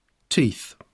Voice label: teeth, uvoiced consonnes; poitiers